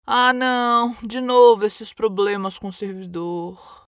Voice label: error page 500 protesting female brazilian portuguese voiceover